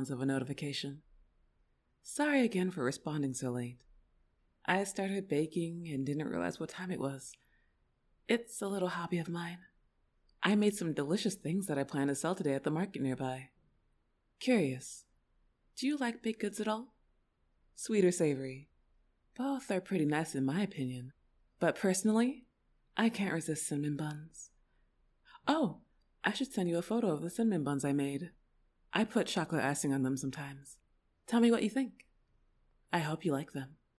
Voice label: Voice Mail; Destined